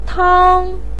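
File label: "tang1", Mandarin Chinese, pinyin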